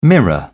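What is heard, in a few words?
"mirror", British English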